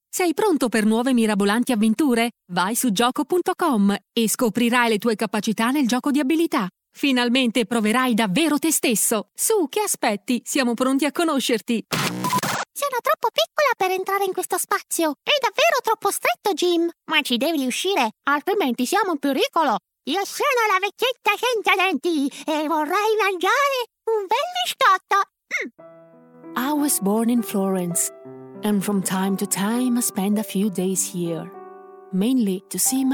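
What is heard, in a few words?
Italian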